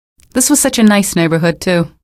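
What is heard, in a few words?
citizen remarks, female; vo; npc; source engine; episodic; episode 1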